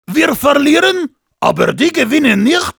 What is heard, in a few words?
dejected tie de; Heavy